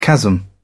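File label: "chasm", British English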